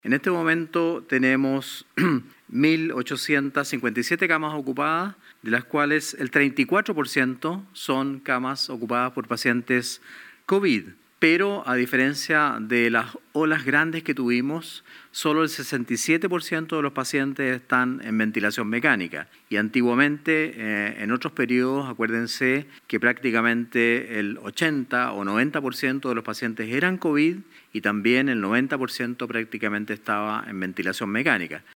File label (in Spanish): cuña; PARIS